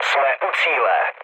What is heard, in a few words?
near waypoint, speech cze, mood low; War Thunder